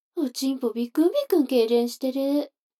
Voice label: 女の子 台詞

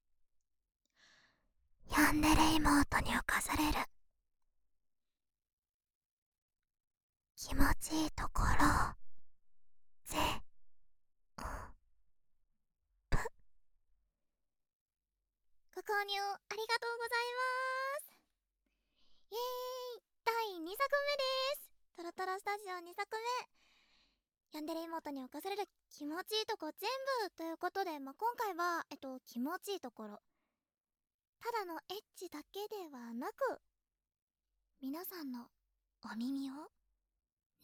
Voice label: フリートーク

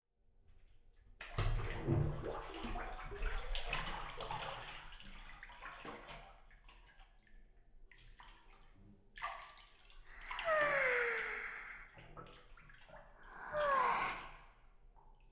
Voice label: 湯船リラックス, ASMR; 優花と薙凪と

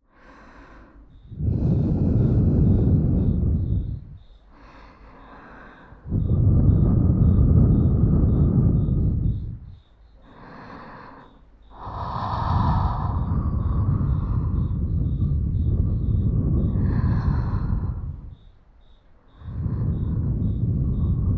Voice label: 両耳ふー囁きとカリカリ乳首弄り, ASMR

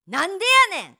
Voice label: なんでやねん, 怒鳴る女 怒る女

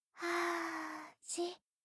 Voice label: ゆっくりカウント, ASMR

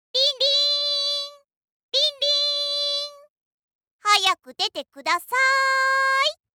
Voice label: システムボイス

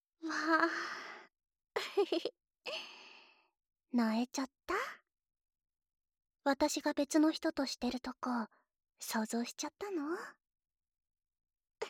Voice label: フェラしながら過去のフェラ話, ASMR; 射精音なし

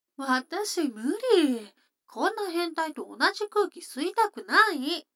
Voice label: 女の子 台詞

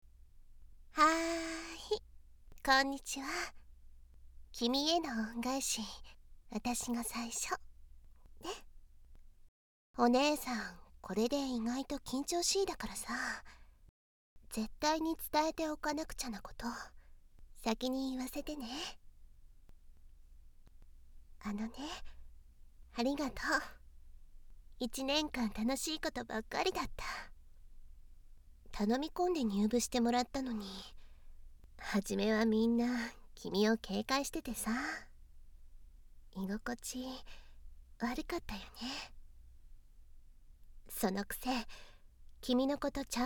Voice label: じっくりと時間を掛ける愛情たっぷりささやき耳舐めと手コキ, ASMR

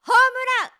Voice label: ホームラン, スポーツ少女

